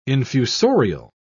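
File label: "infusorial", British English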